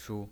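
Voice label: "shu", Mandarin Chinese pinyin, neutral tone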